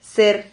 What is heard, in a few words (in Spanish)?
"ser", Silabas